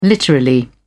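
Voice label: "literally", British English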